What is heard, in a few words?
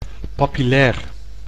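"papillair", Dutch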